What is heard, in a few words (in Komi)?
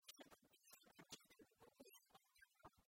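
"сьӧкыдторсӧ аддзывтӧг кокнисӧ он тӧдмав", Komi, female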